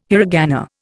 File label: "hiragana", Indian